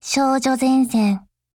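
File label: TITLE CALL, Japanese; GepardM1 Mod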